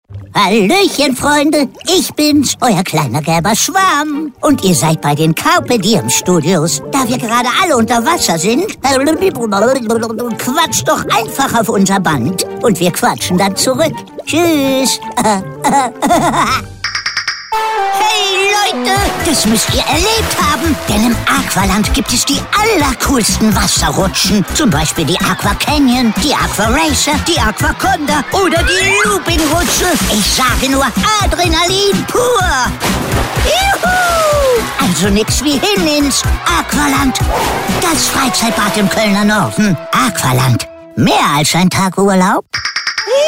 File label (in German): telefonansagen sprecher; Hollywood Sprecher am Telefon; spongebob